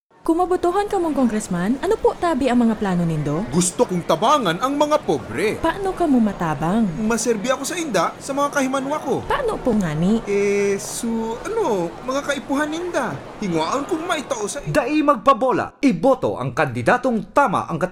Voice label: Radio Ad, Bicolano; Congressman; mediavoteradvocacy